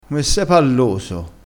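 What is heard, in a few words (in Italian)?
"palloso", dialettale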